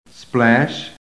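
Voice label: "splash", American English; PHONETIC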